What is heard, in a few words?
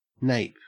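"nape", English, Australian accent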